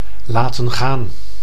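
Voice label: "laten gaan", Dutch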